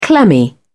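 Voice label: "clammy", British English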